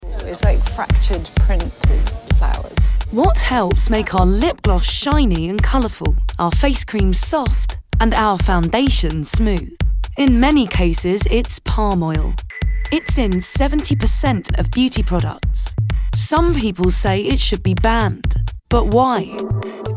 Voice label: narrative